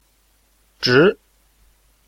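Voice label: "zhi2", Mandarin Chinese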